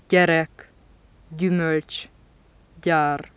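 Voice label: palatal stop voiced initial, hungarian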